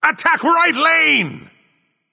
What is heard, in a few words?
vox attack; smite; ra; voicepack